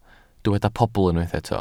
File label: "pobl", Welsh